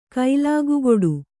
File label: "ಕೈಲಾಗುಗೊಡು", Kannada